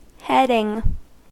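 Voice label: "heading", US English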